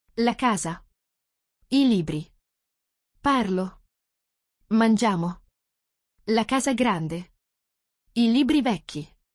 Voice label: basics Italian